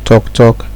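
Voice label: "talk talk", Nigerian Pidgin, male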